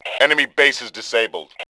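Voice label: enemy base, Male; Tribes2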